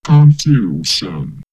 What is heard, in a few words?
Man Machine Confusion, robot vox; X-Static Goldmine